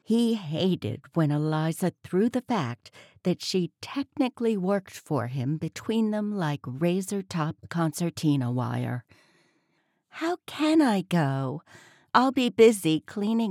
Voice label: Romance F M